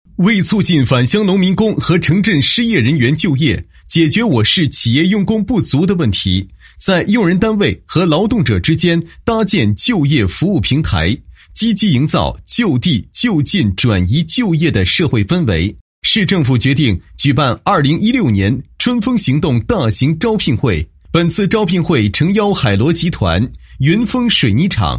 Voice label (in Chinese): 男 公告, 配音; 2016年春风行动大型招聘会